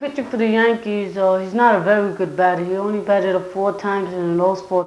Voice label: Interview with 15 year old male New York New York, american english dialect